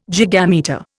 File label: "gigametre", Indian